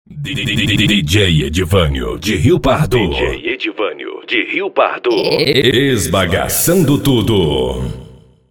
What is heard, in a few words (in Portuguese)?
vinheta dj